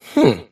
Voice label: happy; davion; dota; dragon knight

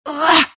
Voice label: temp pain; templar assassin

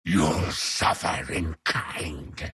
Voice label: troll death; troll warlord

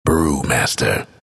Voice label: announcer pick brewmaster; dlc bastion

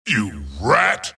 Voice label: wraith death; dota; skeleton king

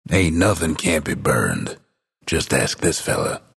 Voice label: announcer pick emberspirit follow; dlc bastion

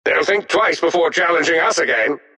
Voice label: announcer victory; dlc defensegrid

